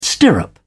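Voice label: "stirrup", English, British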